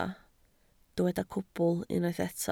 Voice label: "cwbl", Welsh

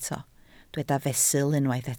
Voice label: "fesul", Welsh

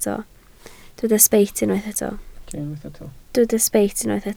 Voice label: "sbeit", Welsh

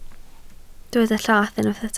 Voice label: "llath", Welsh

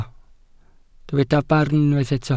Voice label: "barn", welsh

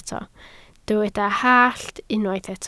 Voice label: "hallt", Welsh

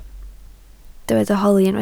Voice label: "holi", Welsh

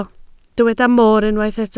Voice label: "moor", Welsh

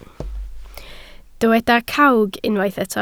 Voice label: "cawg", Welsh

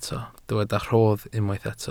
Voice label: "rhodd", welsh

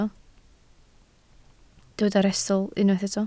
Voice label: "rhestl", Welsh

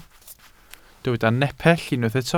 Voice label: "nepell", welsh

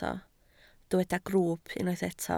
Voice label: "grwwp", Welsh

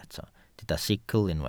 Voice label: "sicl", welsh

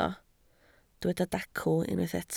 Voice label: "dacw", Welsh

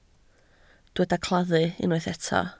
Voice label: "claddu", Welsh

